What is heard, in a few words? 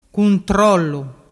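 "cuntrollu", Vernazzese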